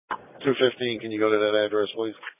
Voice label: Police Patrol 1 (Dispatch)